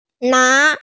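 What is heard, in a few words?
"na2", Mandarin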